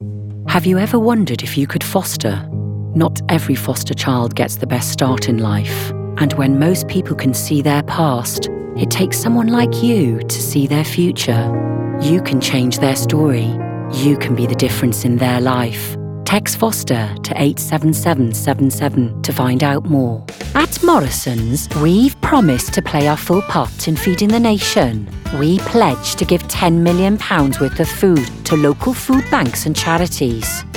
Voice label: female English Welsh multiple accents commercial compilation